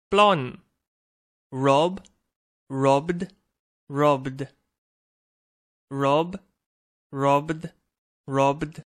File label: "rob", English; Verb